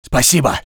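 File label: voice soviet infantry; Red Orchestra 41-45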